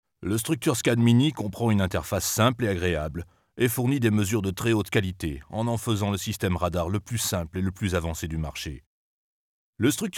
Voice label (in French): Voix grave cassee, Presentation produit; chantier; structurescan